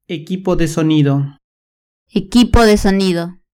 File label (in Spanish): "equipo de sonido", Spanish; Vocabulario